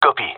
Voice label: affirmation, gendarmerie male; vo; agents